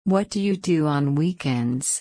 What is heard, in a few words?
low